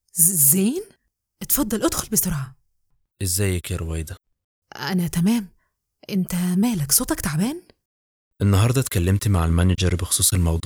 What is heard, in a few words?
Character video game; Gaming; Rowida